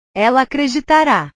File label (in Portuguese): "ela acreditara", portugues